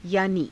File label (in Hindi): "yaanii", Hindi